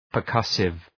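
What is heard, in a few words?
"percussive", English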